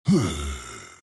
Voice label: wraith death; dota; skeleton king

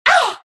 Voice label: naga pain; dota; naga siren

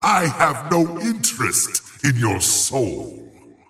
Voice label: lasthit pain; razor

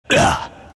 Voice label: venm pain; dota; venomancer

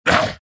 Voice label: troll pain; dota; troll warlord

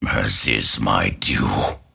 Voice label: lasthit pain; dota; grimstroke

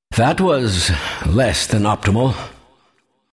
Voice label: announcer death; dlc kleiner

